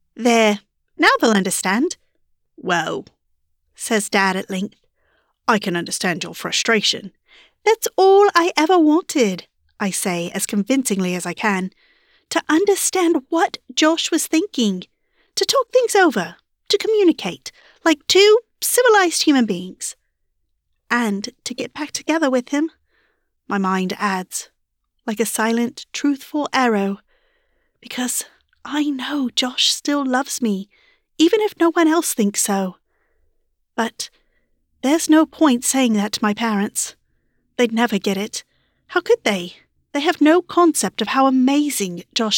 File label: British GalPal Romance, Female; VoiceOver and Narration